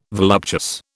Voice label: "voluptuous", British